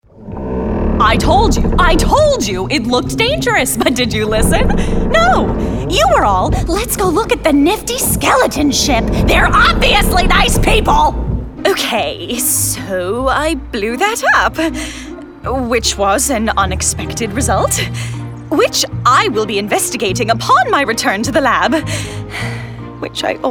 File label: character